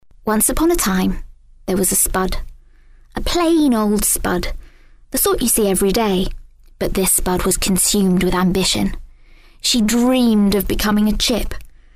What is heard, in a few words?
Story Character; Spud